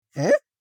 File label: confusion voice; Minion; minions; Fantasy Friends